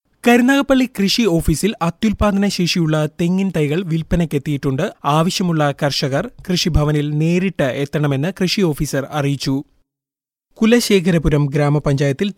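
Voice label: narration malayalam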